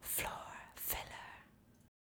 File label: "Floorfiller", vocal phrases; Ultimate Vocals